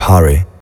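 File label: Voices English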